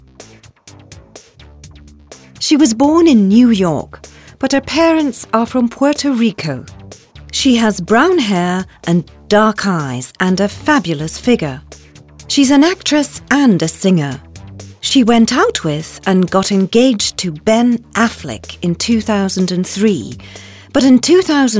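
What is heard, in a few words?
e-learning, British English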